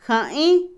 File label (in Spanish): pueblo, gente, Otomí, mujer; INALI